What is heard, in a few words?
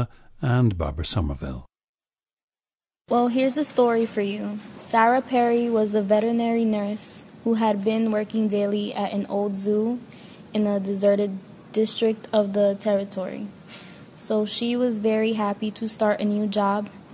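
new york, International Dialects of English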